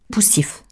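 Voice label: "poussif", French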